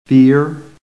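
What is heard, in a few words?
"fear", American English; PHONETIC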